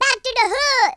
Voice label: "take cover", Gangsta; speech